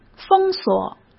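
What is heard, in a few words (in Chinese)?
"封锁", Mandarin Chinese; chinese flashcards; hsk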